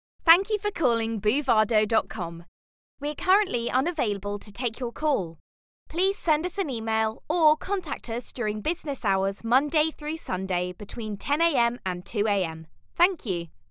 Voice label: IVR